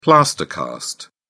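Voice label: "plaster cast", British English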